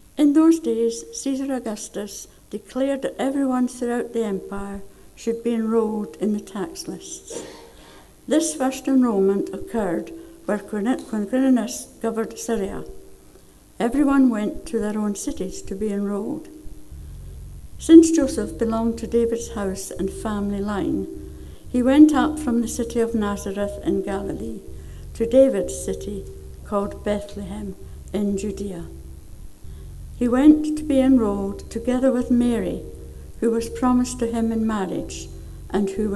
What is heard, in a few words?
read; watchnight; midnight; reading from Luke